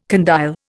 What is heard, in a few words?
"condyle", Indian